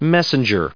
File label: "messenger", us